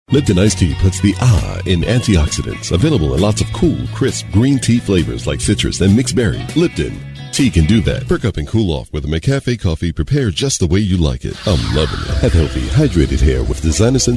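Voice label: Commercial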